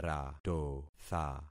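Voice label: "ratotha", concatenated syllables; Affixing experiment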